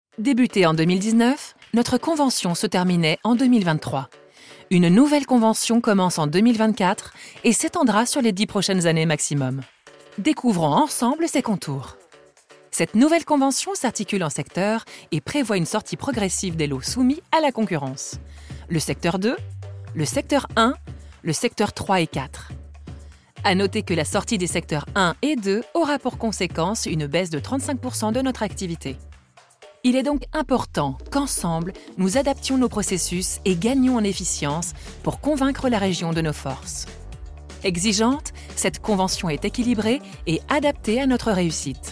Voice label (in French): Institutionnel; voix-off; SNCF